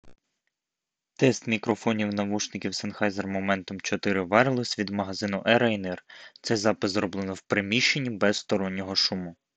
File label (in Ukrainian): Sennheiser Momentum 4 Wireless в приміщенні